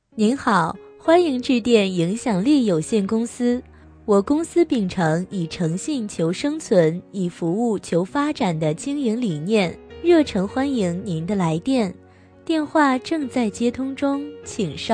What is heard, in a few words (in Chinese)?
女 彩铃